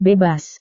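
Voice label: "bebas", Indonesian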